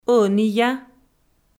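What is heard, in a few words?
"ӧнія", Komi, female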